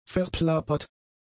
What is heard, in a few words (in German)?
"verplappert", german; adjectives